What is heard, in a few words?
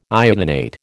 "iodinate", American